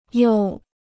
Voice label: "优", Chinese